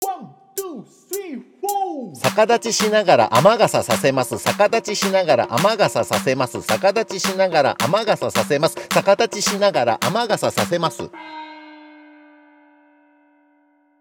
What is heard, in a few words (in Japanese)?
早口言葉リズム（中級編）